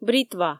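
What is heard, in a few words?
"бритва", Komi, female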